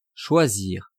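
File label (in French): "choisir", French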